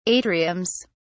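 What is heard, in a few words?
"atriums", English, British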